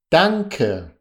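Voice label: "danke", German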